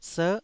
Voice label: "ser9", taiwanese syllable